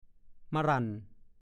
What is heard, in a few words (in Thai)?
"มะหรั่น", ภาษาโคราช; สุรา